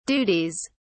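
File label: "duties", British English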